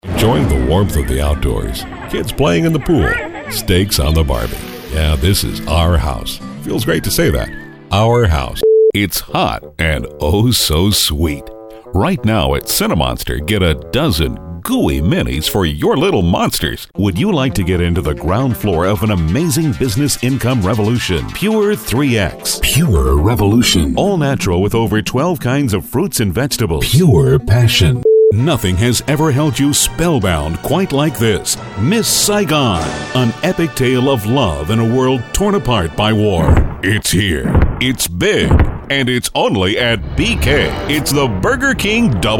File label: Commercial